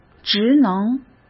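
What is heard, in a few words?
"职能", Mandarin Chinese; chinese flashcards; hsk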